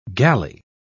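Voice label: "gally", British English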